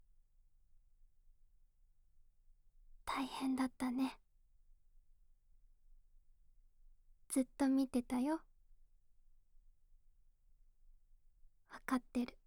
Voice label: 励ましボイス 左

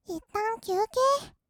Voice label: 「いったん休憩」, kawaii voice; 1200 kawaii voice!!; 色々なワンショット

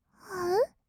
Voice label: あう, kawaii voice; ASMR; 色々なワンショット

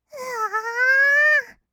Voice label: うおーーー, kawaii voice; ASMR; 色々なワンショット

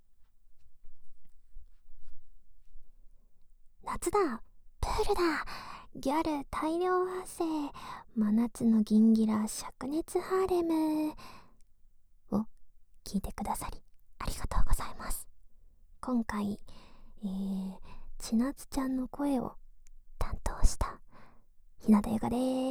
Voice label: フリートーク; ASMR

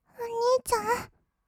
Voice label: "おにいちゃん？", kawaii voice; ASMR; 二人称; 1200 kawaii voice!!

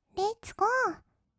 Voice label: "レッツゴー", kawaii voice; ASMR; 1200 kawaii voice!!; 音ゲー

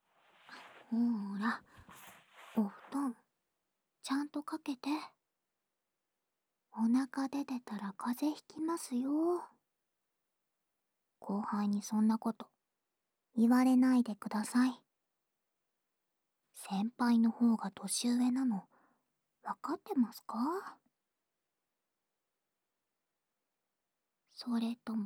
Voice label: 癒やしの寝かしつけタイム

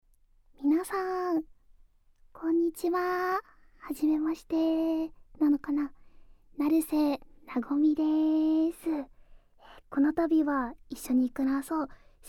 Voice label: フリートーク

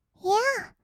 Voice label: いぇあ, kawaii voice; ASMR; 1200 kawaii voice!!; 音ゲー

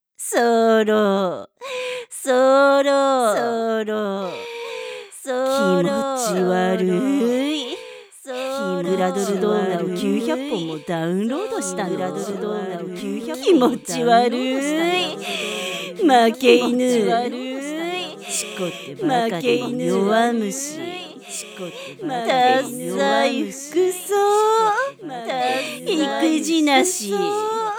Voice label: アドリブ罵倒 Echo

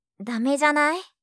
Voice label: "駄目じゃない？", 相づち・反応系【ネガティブ】; ASMR

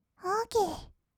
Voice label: "オーケー", kawaii voice; ASMR; 1200 kawaii voice!!; 音ゲー

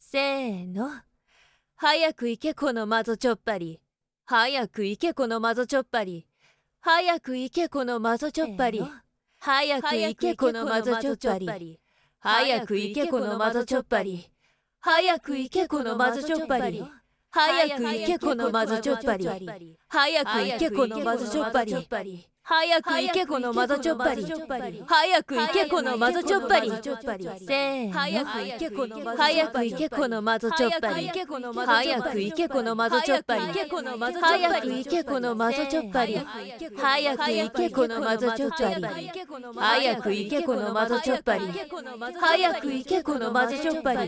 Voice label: マゾチョッパリ連呼エコー

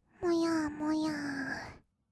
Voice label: "もやもやー", kawaii voice; ASMR; 色々なワンショット

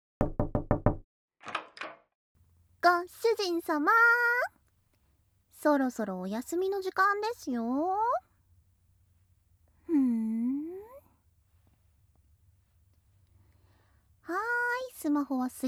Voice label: オノマトペ メイド

